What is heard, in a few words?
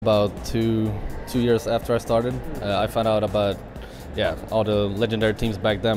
mystery voice